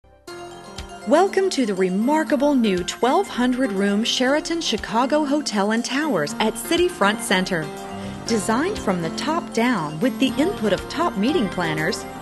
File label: Commercial